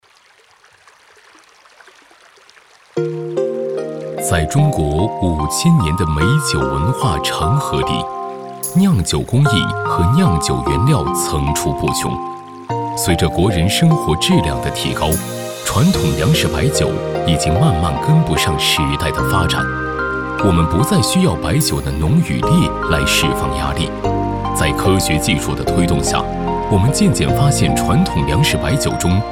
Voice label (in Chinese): 男 宣传 酒类 果酿白酒